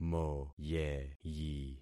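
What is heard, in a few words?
"moyeyi", concatenated syllables; Affixing experiment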